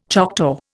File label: "Choctaw", Indian